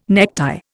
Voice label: "necktie", Indian